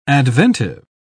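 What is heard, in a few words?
"adventive", British English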